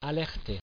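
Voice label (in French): "alerter" French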